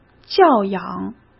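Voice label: "教养", Mandarin Chinese; chinese flashcards; hsk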